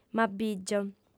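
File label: "m'abìgio", female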